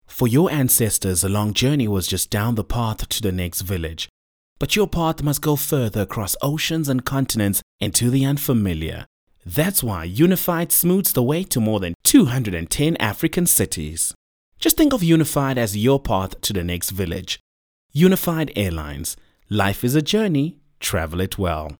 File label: English conversational; OSM Talent